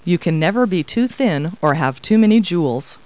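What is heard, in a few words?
woman, Talking Clip Words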